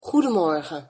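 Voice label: "goedemorgen", Dutch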